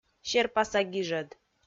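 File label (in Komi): "серпаса гижӧд", Komi, female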